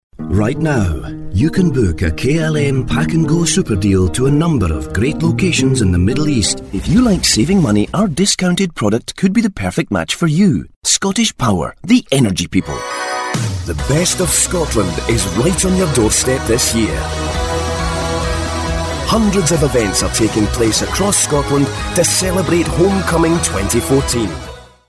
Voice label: Scottish

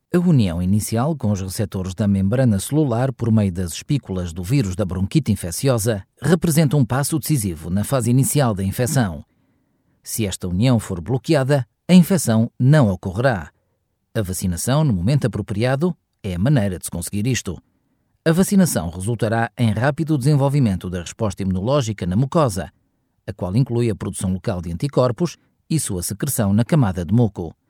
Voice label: elearning

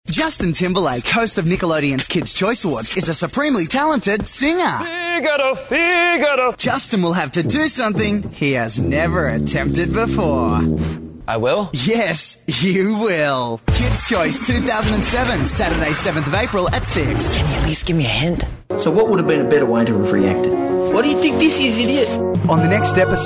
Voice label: Promos

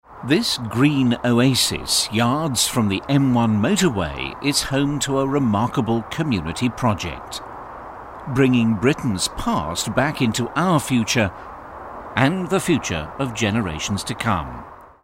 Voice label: narration

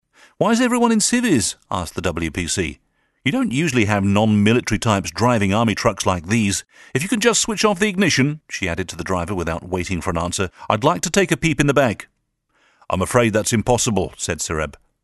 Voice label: talking book

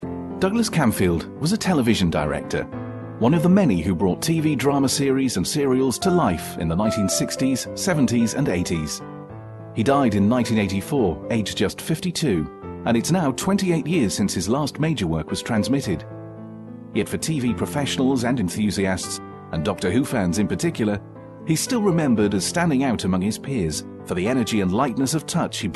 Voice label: narration

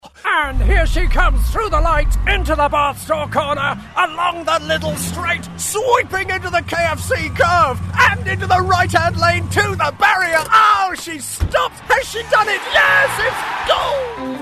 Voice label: commentary

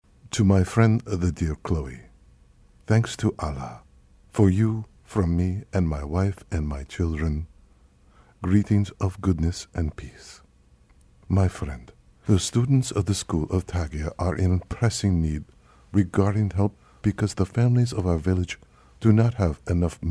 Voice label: Middle Eastern

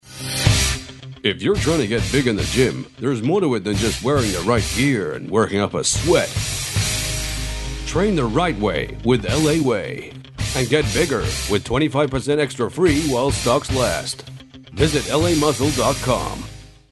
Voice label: american

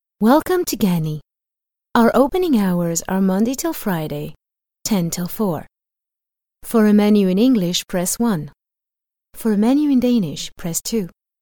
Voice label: english telephone

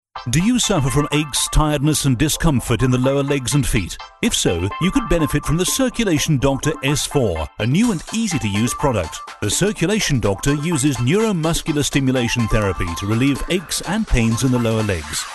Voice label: hard sell

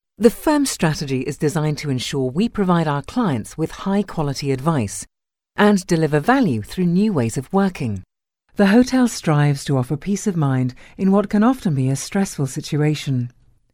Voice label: corporate

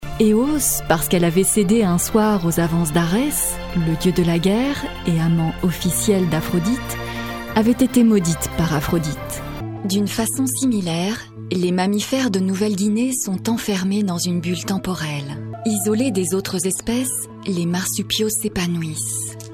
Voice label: narration

